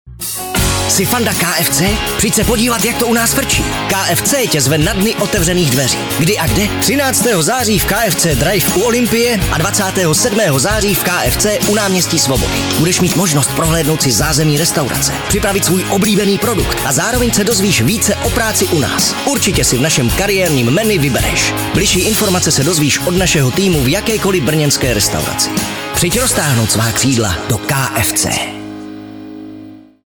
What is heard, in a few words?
czech male